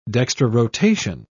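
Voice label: "dextrorotation", British English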